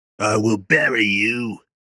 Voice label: vocal i will bury you male 30s fantasy; rogue